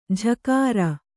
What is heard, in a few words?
"ಝಕಾರ", Kannada